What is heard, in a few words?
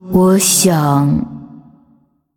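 Female, Chinese; Ghost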